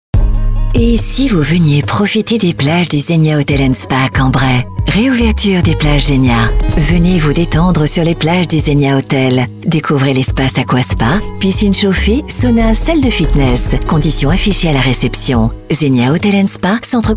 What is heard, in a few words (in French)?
sensuel